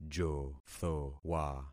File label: "jothowa", concat syllables; Affixing experiment